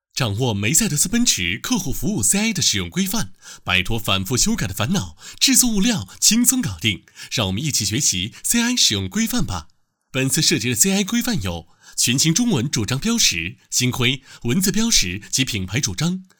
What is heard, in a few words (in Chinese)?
配音 售后服务; 梅赛德斯奔驰